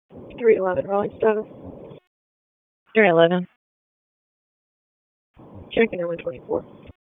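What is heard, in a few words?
Police Northeast District Dispatch; Raleigh; wake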